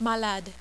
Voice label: "malade", French